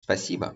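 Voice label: Russian thanks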